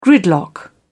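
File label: "gridlock", British English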